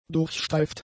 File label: "durchstreift", german; adjectives